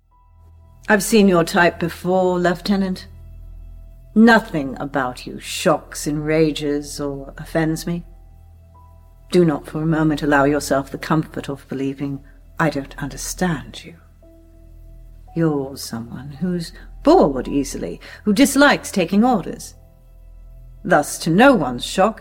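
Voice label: British English General; voice demos; GoLocalise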